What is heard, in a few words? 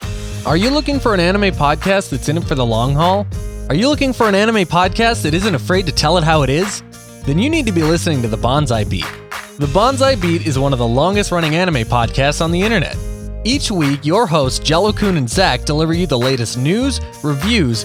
Promo